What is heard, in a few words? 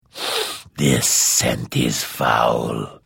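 Hello, Vo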